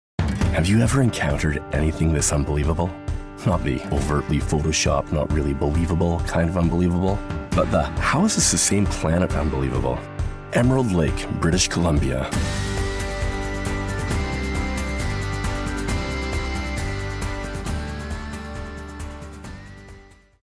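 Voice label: Commercial Voice Over, Evocative · Genuine · Premium; Emerald Lake; Commercial Demo Clips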